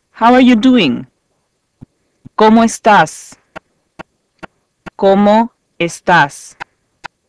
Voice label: Spanish Greet